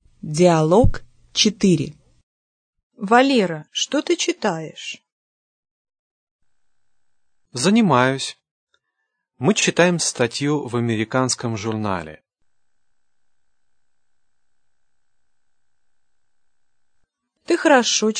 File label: dialog, russian